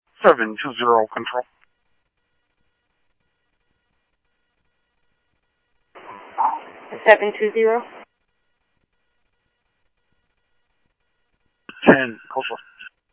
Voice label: Rail, scanner audio; VMRail SEC ValleyMetroPubli; ThompsonPeakHigh